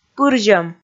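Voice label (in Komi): "пырзьӧм", Komi, female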